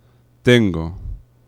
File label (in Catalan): "tengo", nasals; laboratori fonetica